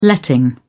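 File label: "letting", British English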